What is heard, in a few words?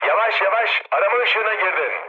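searchlight, mood med, speech tur; War Thunder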